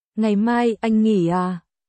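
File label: Vietnamese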